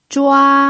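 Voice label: "zhua1", Mandarin Chinese pinyin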